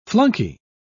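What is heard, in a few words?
"flunkey", British English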